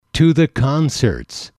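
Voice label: "to the concerts", English, male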